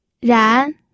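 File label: "ran", Mandarin Chinese, second tone; pinyin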